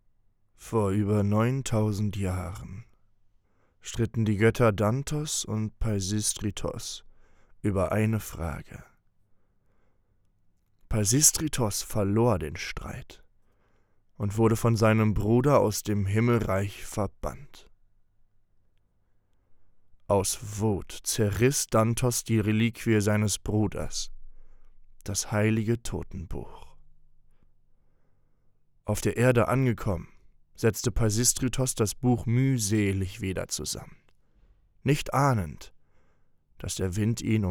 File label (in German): Sprechprobe Voiceover Intro